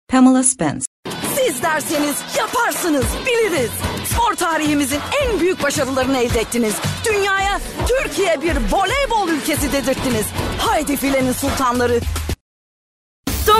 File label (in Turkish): turkce kadin